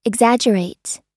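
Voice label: "exaggerates", US English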